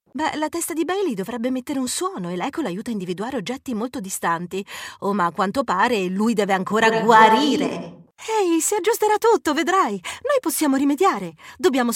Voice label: italian video games